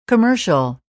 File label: commercial